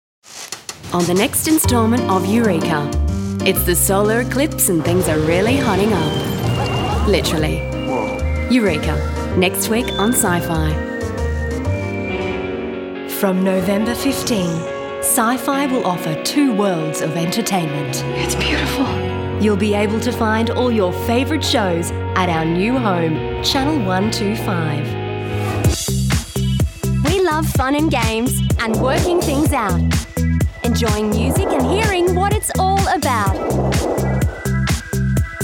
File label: promos